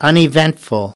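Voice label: "uneventful", American English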